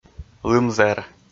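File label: "лым-зэр" Komi, male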